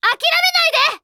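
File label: story, Japanese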